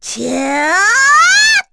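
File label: Vox Casting, Korean; Chrisha; kingsraid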